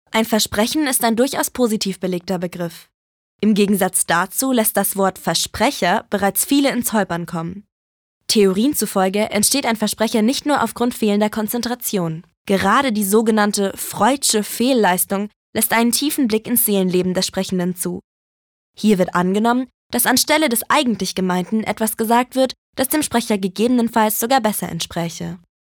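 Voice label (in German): Kommentar Versprecher Freundlich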